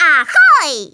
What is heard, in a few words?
INCOMING, Speech Polish; Worms Armageddon